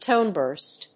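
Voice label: "tone burst", English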